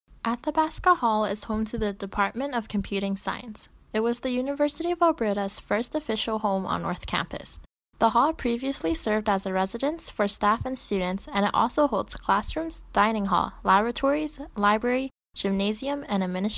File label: Athabasca Hall - English; NSO Tour